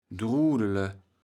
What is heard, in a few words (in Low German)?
"drudele", kaevels platt